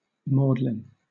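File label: "maudlin", British English; pronunciations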